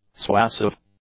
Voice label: "suasive", English; adjectives